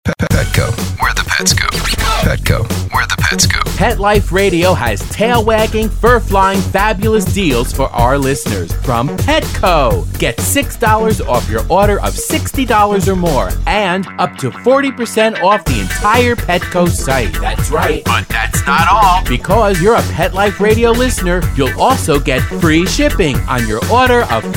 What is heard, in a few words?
Commercial; PETCO